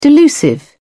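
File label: "delusive", British English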